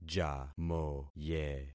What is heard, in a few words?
"jamoye", concat syllables; Affixing experiment